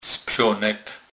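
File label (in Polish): "spsonek", Kurpie dialect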